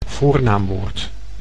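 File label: "voornaamwoord", Dutch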